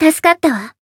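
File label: healed, Japanese; radica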